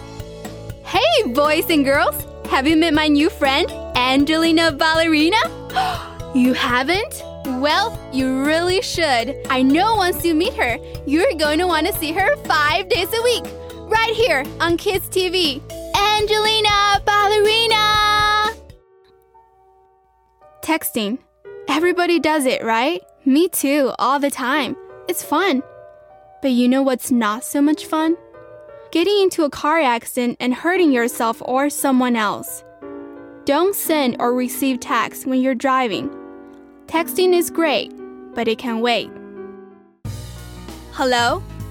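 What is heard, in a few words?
Chinese English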